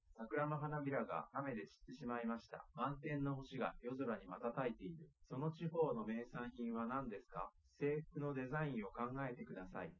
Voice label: male liverec 250ms 5cm sim; SiSEC08; SiSEC underdetermined

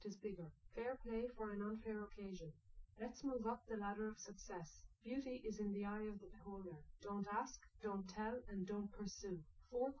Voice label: female liverec 250ms 1m sim

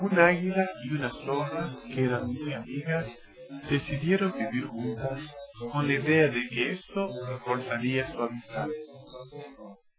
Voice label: male inst sim; SASSEC07

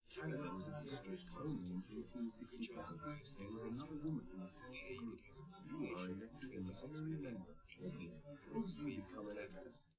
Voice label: male live rec; SiSEC10; underdetermined

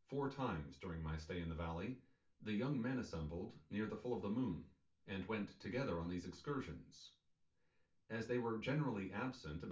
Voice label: male synthconv sim

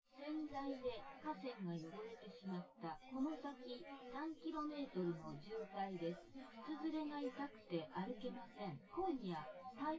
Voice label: female synthconv

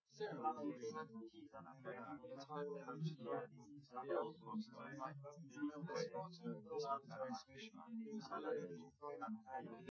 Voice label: male, live rec; SiSEC08; SiSEC underdetermined

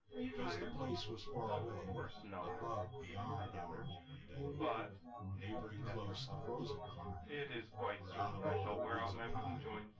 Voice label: male synth conv 250 ms 5 cm

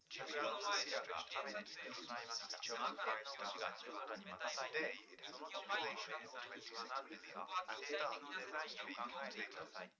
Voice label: male4 liverec 250ms 5cm sim

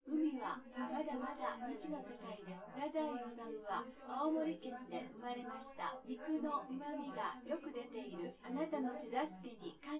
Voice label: female synthconv

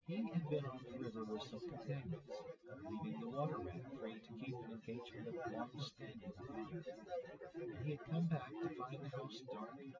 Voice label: male, synth conv, 130ms, 1m; SiSEC08; SiSEC underdetermined

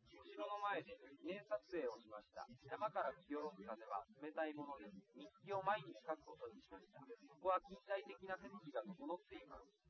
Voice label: male live rec; SiSEC08; SiSEC underdetermined

